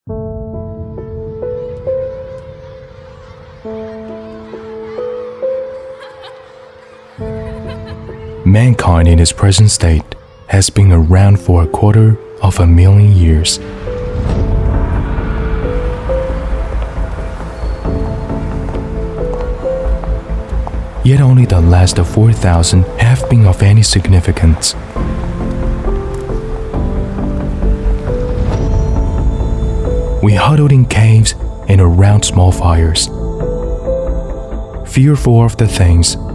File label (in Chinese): 英文专题电影旁白